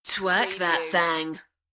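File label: UK female, DJ Vocal; beanstalk audio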